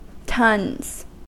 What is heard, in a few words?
"tons", US